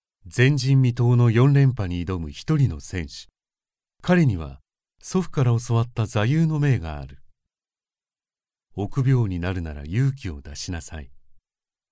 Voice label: male japanese voice over talent narrative